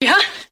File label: "yeah huh", voice; ooh ahh